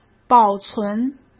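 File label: "保存", Mandarin Chinese; chinese-flashcards; hsk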